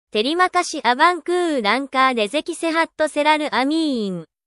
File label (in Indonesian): terimakasih abangkuhh japan girl